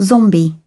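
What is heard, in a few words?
"zit", British English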